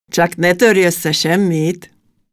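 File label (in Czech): maďarština žena, Hungarian female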